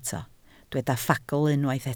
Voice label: "ffagl", Welsh

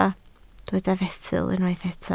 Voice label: "fesul", Welsh

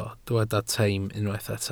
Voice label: "teim" Welsh

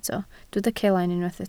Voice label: "celain", Welsh

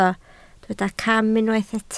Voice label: "cam", Welsh

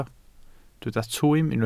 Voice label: "twym", Welsh

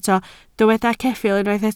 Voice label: "ceffyl" Welsh

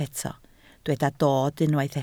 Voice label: "dod", Welsh

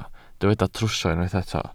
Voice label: "trwsio", Welsh

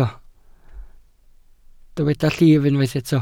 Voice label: "llif", Welsh

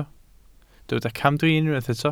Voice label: "camdrin", Welsh

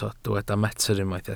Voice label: "metr", Welsh

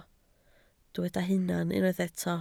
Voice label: "hunan", Welsh

